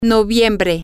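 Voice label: november, Spanish, female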